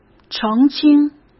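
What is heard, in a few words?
"澄清", Mandarin Chinese; chinese flashcards; hsk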